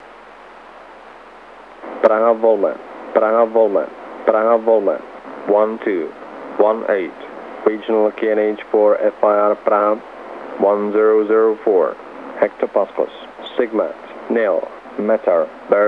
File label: Praha VOLMET 128,60MHz